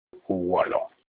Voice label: "uwolo" warchief, Olukumi